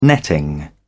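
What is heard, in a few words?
"netting", British English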